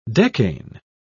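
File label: "decane", English, British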